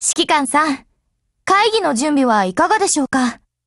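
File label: HELLO Japanese; Spitfire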